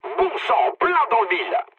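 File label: bomb success, mood low, French; War Thunder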